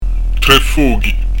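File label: "3 fochi", Prononciation du corse; trè f'ogi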